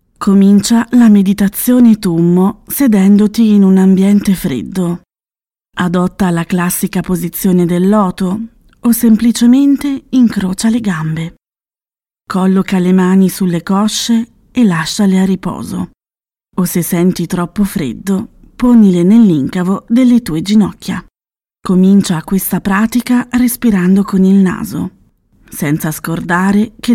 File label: female, Italian